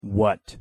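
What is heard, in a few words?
pronunciation "what", English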